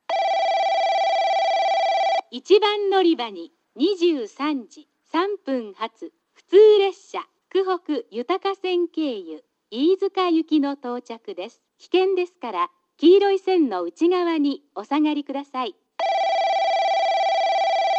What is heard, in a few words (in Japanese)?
1番のりば接近放送（普通 飯塚行き）; 福北ゆたか線